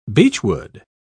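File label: "beechwood", English, British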